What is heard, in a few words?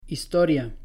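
"historia", Spanish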